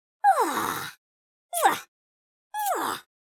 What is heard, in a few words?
happy; speech